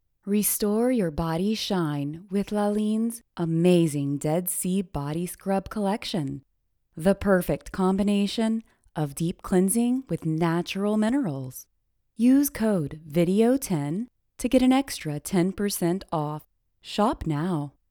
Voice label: 外籍英女 抒情语调 产品推销

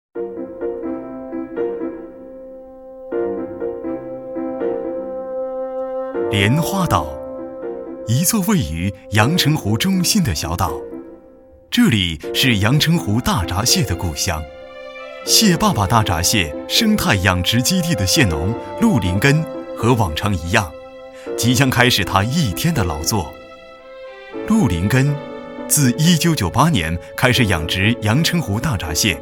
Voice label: 专题 产品解说 阳澄湖大闸蟹, 国语男声配音

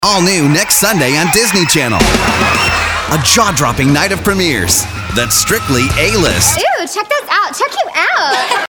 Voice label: 外籍男 电视广告; Disney-Channel

